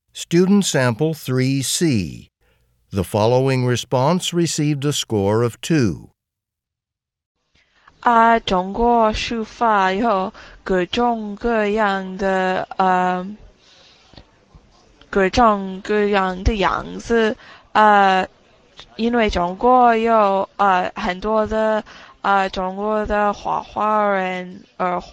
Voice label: chinese conversation